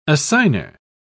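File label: "assigner", British English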